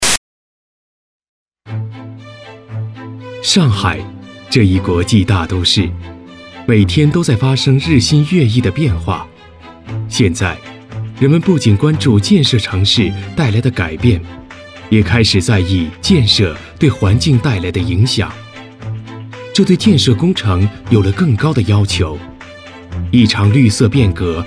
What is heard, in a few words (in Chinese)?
英男,清新 明快; 上海